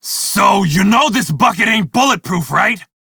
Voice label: crew ship low, male; Dialog; en; Warframe; Railjack Crew; Red Veil

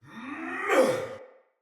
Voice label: Attack Heavy Soldier Male; Sinecure Soldier Male A

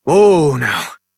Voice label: Horse Stop Walk Adult; Duviri

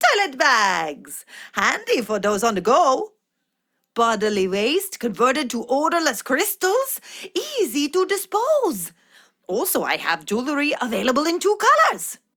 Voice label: Ost Fem en; Cetus

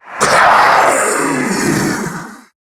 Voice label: Death, en; Zariman Wraith

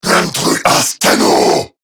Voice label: Attack, Male

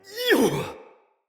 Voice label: attack, soldier male, en; Battle; Barks; Dialog; Dendrit

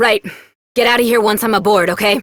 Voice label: Adult Op, en; New War

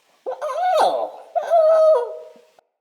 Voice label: Sprout Vocal Grumpy; Friendly; Sproutfolk; Fey Hub Sprout

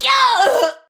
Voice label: Death Ranged, Battle Barks; Tau; Tau Dax

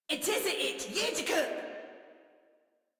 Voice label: Player Spotted Female, Battle Barks; Warframe; Modular Corpus Female

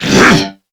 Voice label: Threatened Crewmen, Dialog, Barks, Battle; Warframe; CorpusCrewmen; CrewmanA

